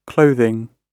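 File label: "clothing", British English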